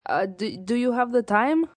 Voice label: Have The Time, Questions, male, boy 'Do you have the time?' (Russian accent); human voice speaking; Talking Sound Effects